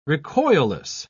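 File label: "recoilless", British English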